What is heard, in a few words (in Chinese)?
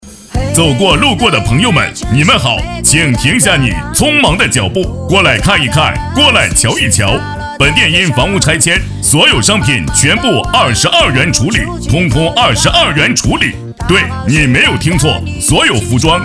百货广告配音